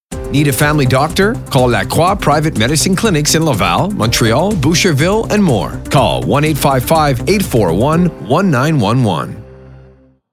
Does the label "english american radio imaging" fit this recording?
No